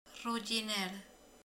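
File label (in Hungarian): "imádkozik", Hungarian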